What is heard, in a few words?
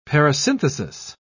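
"parasynthesis", British English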